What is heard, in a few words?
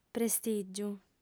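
"prestìgiu", Sardinian, female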